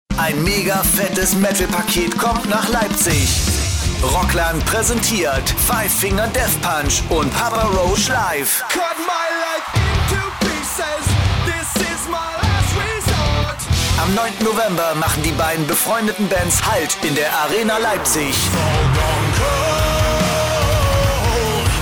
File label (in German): Trailer